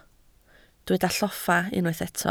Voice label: "lloffa", Welsh

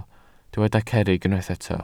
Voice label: "cerrig", Welsh

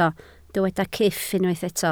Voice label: "cyff", Welsh

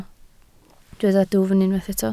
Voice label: "dwfn", Welsh

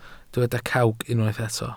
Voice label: "cawg", Welsh

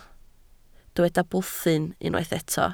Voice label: "bwthyn", Welsh

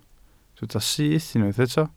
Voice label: "syth", Welsh

